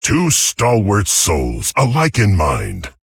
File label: Male Tauren Guardian Animals